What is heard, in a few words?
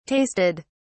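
"tasted", British English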